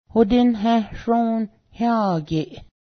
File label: parade, Cayuga